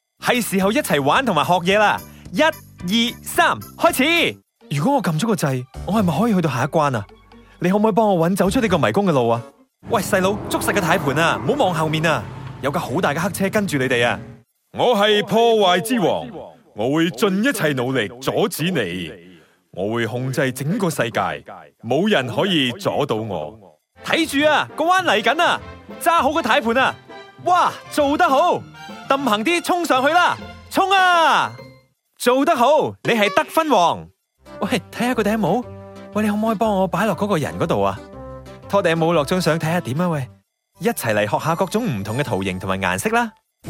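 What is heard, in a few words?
Cantonese, Toys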